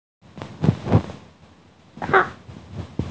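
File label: "kan4", Mandarin Chinese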